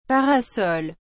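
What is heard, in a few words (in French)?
"parasol", French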